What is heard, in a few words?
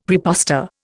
"praepostor", Indian; Dictionary